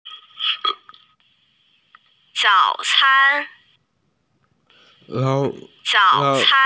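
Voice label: "breakfast", Mandarin; zao3can1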